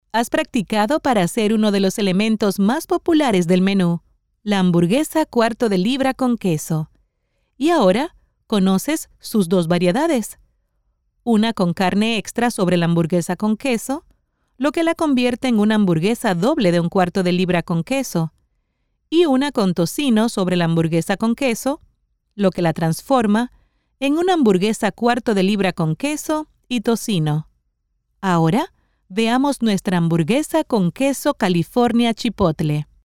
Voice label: spanish latin american e-learning